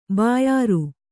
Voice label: "ಬ್ಯಾರ", Kannada

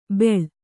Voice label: "ಬೆಳ್", Kannada

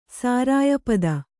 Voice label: "ಸಾರಾಯಪದ", Kannada

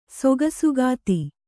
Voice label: "ಸೊಗಸುಗಾತಿ", Kannada

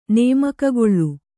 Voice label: "ನೇಮಕಗೊಳ್ಳು", Kannada

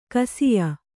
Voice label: "ಗೋಸಾಯಿ", Kannada

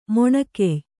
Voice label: "ಮೊಣಕೆಯ್", kannada

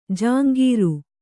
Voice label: "ಝಂಕಾರ", kannada

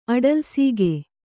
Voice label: "ಅಡಲ್ಸೀಗೆ", kannada

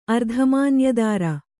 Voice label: "ಅರ್ಧಮಾನ್ಯದಾರ", Kannada; ardhamānyadāra; noun

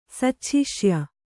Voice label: "ಸಚ್ಛಿಷ್ಯ", kannada

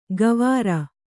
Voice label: "ಗವಾರ", Kannada

